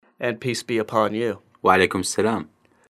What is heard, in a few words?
iraqi, And peace be upon you (answer) wa 'aley-kum es-salam وعليكم السلام